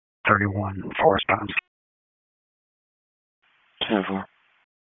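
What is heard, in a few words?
Wake Forest Police Dispatch, p25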